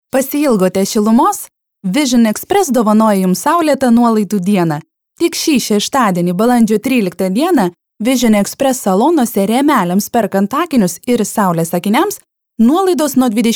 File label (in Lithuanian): reklama